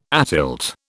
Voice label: "atilt", British

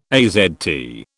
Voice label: "AZT", British

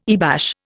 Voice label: "earbash", Indian

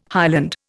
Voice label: "highland", Indian

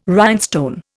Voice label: "rhinestone", Indian

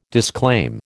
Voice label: "disclaim", American

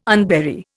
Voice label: "unbury", Indian

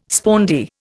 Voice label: "spondee", Indian

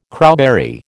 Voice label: "crowberry", American

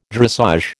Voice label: "dressage", American

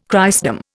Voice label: "Christendom", Indian

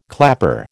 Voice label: "clapper", American

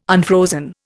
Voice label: "unfrozen", Indian

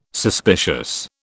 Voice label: "suspicious", British

